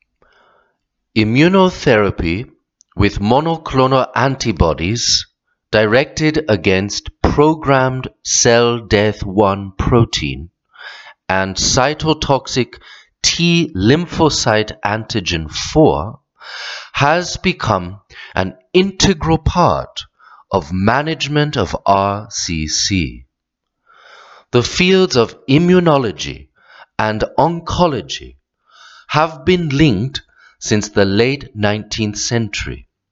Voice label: Presentation Medical Sample SLOW